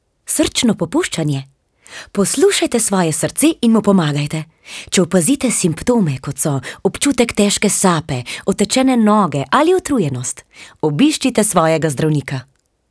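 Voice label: slovenian female commercial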